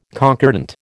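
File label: "concordant", American English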